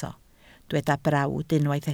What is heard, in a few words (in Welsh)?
"brawd", Welsh